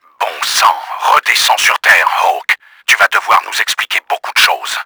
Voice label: angry, french; KRAMER; Battle Engine Aquila